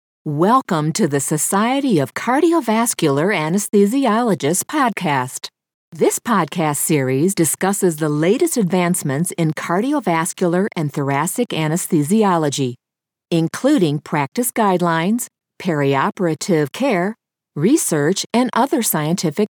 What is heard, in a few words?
english american podcasts